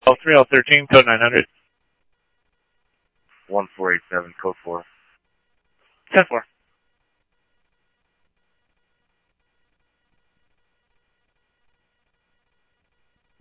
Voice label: Police, scanner audio; ThompsonPeakHigh; SCTSDLE PD - PD2 ScottsdalePolice

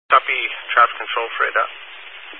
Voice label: Highway Patrol, Phoenix Metro East Area, scanner

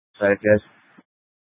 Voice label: Fire Dispatch; H- Scottsdale

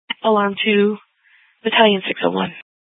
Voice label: Fire, scanner; PHX Fire

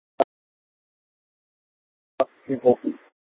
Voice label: Public Transit System Light Rail Ops, scanner

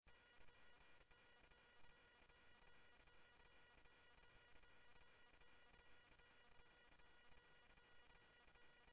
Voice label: Mesa Simulcast, MESA PD, scanner audio

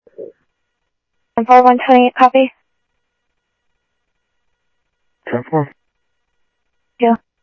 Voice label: Sheriff-EastCnty, scanner audio

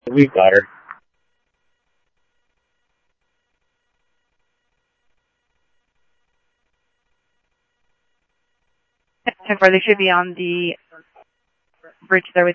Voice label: Mesa Simulcast police; jumper

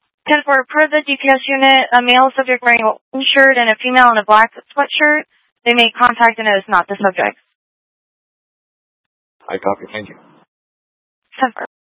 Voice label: Mutual Aid-Intersystem Interop B, scanner; AMBER Alert

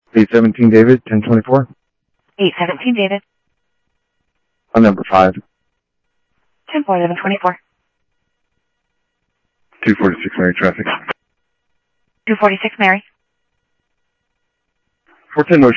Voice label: Central Sheriff Central, scanner audio

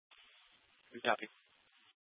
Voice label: Mesa PD Dispatch; Fiesta

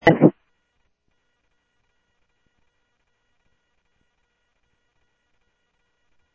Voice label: Info Sheriff Common, scanner audio; MCSO - Info Sheriff - Common; ThompsonPeakScot

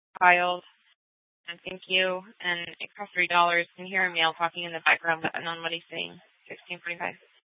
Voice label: Scottsdale PD Sth Dispatch, scanner; Regional Wireless Cooperative (RWC) - Simulcast H- Scottsdale

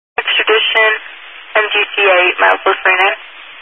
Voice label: Highway Patrol, scanner; DPS; Dist 04 Yuma

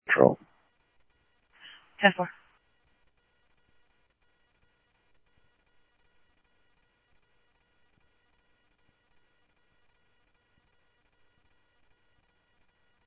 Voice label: Security, scanner audio; Thompson Peak Hi; G-S Security

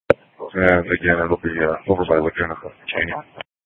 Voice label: Sheriff, scanner P25

